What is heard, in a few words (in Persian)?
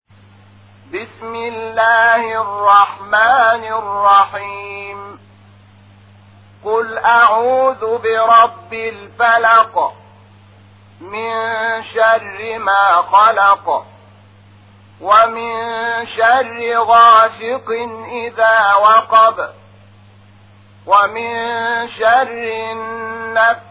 ترتیل قرآن کریم سوره فلق; محمود شحات انور